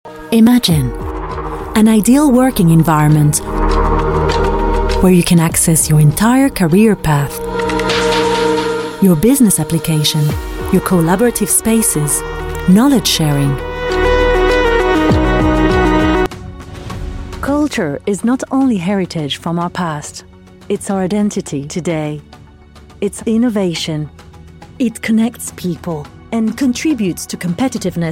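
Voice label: corporate English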